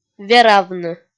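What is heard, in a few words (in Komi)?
"веравны", Komi, female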